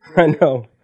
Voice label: "i know", voice; expletives